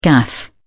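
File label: "gaff", British English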